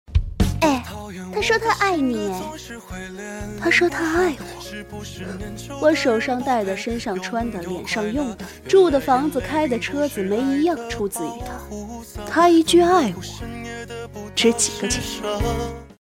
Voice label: 情景配音展示品

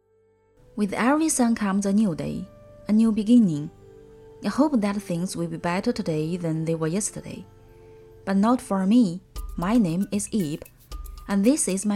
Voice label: 英文动画; 疯狂原始人

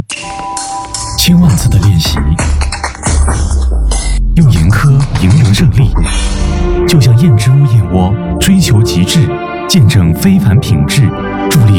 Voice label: 广告片配音片段; 燕之屋广告片配音片段

